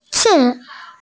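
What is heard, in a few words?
"ci4", Chinese, F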